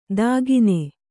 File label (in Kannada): "ದಾಗೀನೆ", kannada